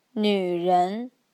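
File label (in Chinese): "nüren", Mandarin Chinese; 女人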